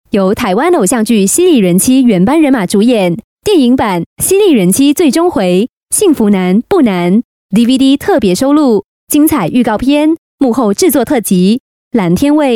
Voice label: Mandarin TVC Read, China Female